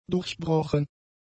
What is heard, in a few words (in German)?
"durchbrochen", German; adjectives